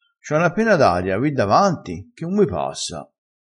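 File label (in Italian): "pena", dialettale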